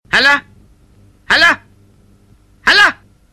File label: Comedy Hello